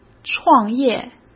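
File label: "创业", Mandarin; chinese-flashcards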